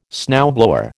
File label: "snowblower", American